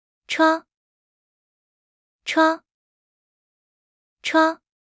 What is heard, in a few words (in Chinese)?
"窗", mandarin; Traditional Chinese